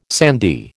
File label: "sandy", American